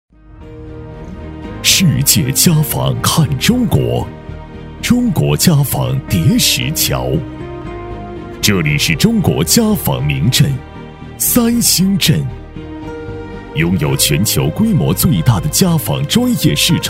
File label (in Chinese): 男 产品专题 厚重硬朗; 男W3-产品专题-厚重硬朗